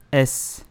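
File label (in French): "S", learn French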